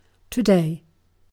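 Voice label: "today", British English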